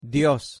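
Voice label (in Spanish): "dios", Spanish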